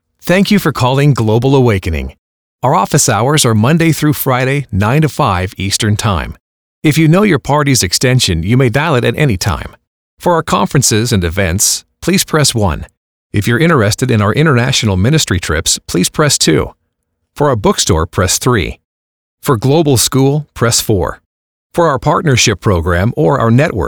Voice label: phone prompts